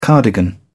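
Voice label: "cardigan", British English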